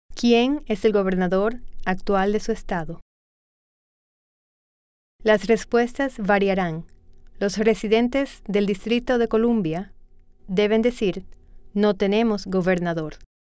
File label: Spanish